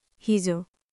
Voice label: Nepali, basic phrases; ネパール人技能実習生や留学生の皆さんと話すとき、「どうやって自分の気持ちを伝えたらいいんだろう？」と悩むことはありませんか？好き嫌いを伝えたり、何か必要なものを尋ねたりする場面では

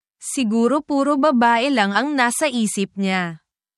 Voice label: tagalog filipino words and phrases, insults; nasty language

